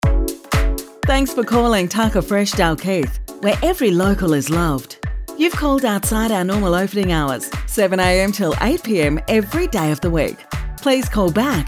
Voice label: IVR; Tucker Fresh Dalkeith